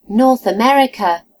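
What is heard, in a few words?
"north america", British English; gcompris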